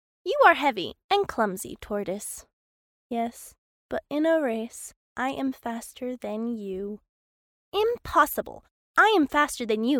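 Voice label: American English, child; voice over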